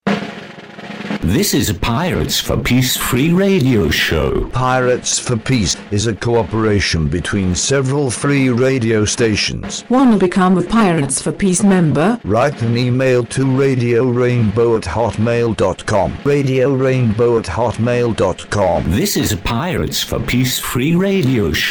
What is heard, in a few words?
JINGLE PIRATES FOR PEACE INFO PROMO